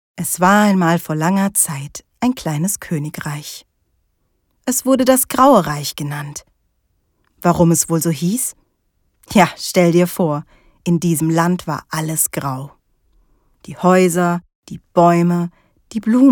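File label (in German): hörbuch kinderbuch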